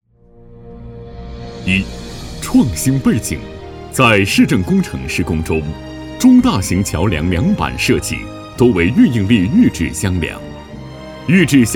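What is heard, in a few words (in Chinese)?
工程 解说 类型, 普通话, 男, 中年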